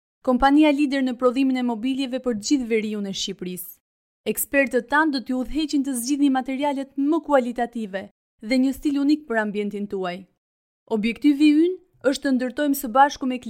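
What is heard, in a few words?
Albanian female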